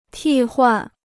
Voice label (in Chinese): "替换", Chinese; tì huàn; to exchange; to replace